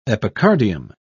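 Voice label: "epicardium", British English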